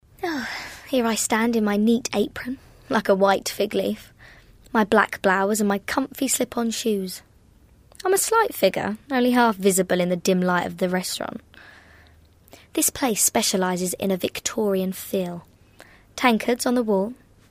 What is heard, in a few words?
Narration Waitress